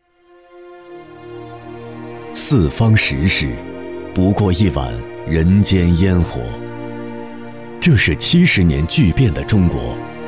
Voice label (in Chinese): 男 纪录 自然 讲述 食品安全纪录片